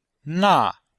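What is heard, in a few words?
"na4", Chinese; Cours de Chinois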